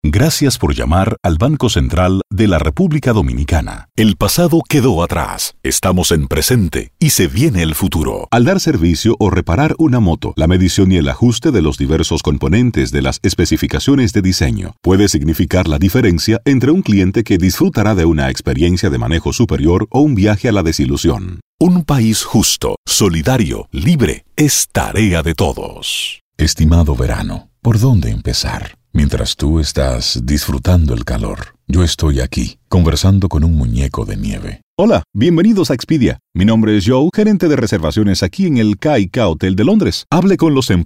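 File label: Latin American Spanish Narrative; GoLocalise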